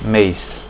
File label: month, portuguese; portoghese brasiliano